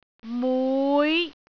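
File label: "mui5", Cantonese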